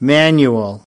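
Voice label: "manual", English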